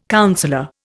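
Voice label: "councillor", Indian English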